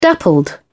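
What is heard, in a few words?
"dappled", British English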